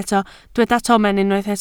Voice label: "tomen", Welsh